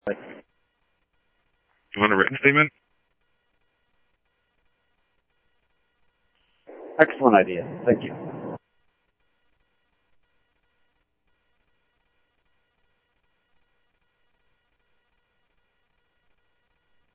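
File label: Mesa Police Department, scanner audio trunk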